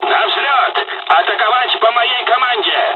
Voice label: japanese first wave, dialogs russian; War Thunder